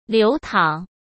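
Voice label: "流淌" Mandarin Chinese; chinese flashcards; hsk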